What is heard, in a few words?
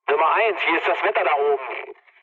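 German chat, speech; War Thunder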